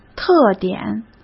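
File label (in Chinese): "特点" Mandarin Chinese; hsk; chinese-flashcards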